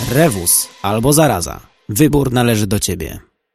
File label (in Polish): Fragment spotu reklamowego; firmy Syngenta